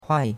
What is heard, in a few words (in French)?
"huai4", chinois; Cours de Chinois